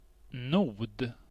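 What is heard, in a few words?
"nod", Swedish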